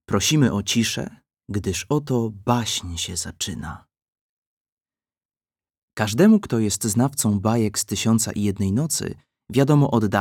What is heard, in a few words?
Audiobook